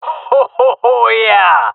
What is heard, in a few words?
round winning, jungle male; agents